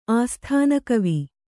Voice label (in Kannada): "ಆಸ್ಥಾನಕವಿ", Kannada